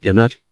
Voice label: donut, scientist; svencoop; ragemap2022; trempler